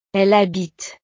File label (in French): "elle habite", frances